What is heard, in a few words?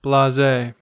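"blase", English, American accent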